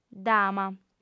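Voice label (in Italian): "dama", lingua sarda, female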